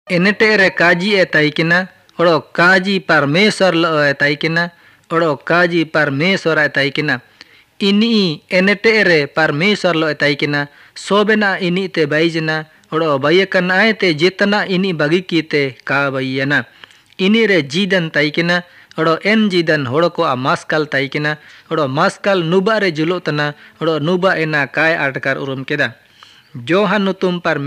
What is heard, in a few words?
mundari; new testament unr mundari audio bible